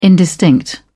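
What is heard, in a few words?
"indistinct", British English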